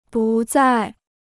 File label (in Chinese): "不再", Chinese